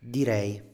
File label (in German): "direi", Italienisch; Ich würde sagen...; Standard-Italienisch